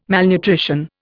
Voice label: "malnutrition", Indian